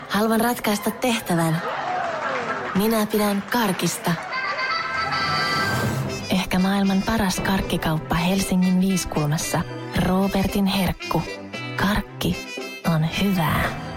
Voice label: Finnish